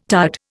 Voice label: "tired", Indian